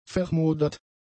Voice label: "vermodert", German; adjectives

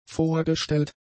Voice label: "vorgestellt", German; adjectives

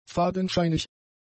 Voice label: "fadenscheinig", German; adjectives

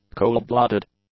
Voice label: "coldblooded", English; adjectives

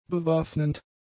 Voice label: "bewaffnend", German; adjectives

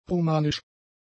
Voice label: "romanisch", German; adjectives

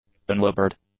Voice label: "unlabored", English; adjectives

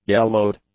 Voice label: "yellowed", english; adjectives

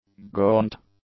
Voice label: "gaunt", English; adjectives

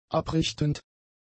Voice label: "abrichtend", German; adjectives

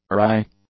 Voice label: "awry", English; adjectives

